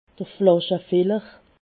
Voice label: "flaschefiller", alsace